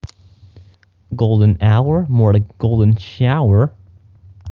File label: voice message